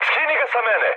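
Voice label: Serbian aircraft damaged mood med, speech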